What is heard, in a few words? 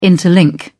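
"interlink", English, British English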